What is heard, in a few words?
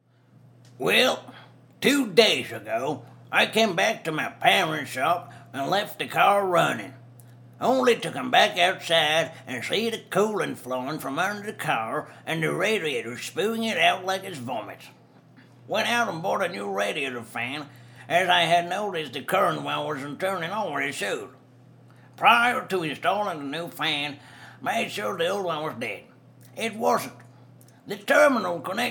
me reading as southern hillbilly man